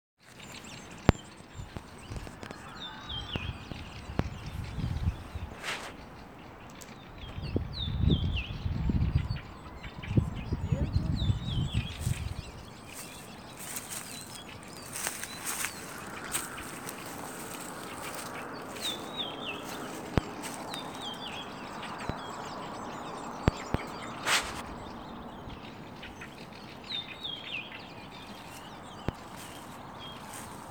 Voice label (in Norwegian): tale